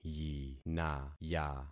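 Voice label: "yinaya", concatenated syllables; Affixing experiment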